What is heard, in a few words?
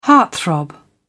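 "heartthrob", British English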